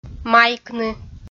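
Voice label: "майкны", Komi, female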